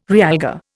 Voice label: "realgar", Indian